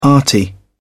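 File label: "arty", British English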